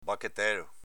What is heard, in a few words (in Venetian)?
"bachetelo", Veneto